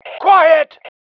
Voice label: quiet, male